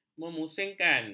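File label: "memusingkan", Malay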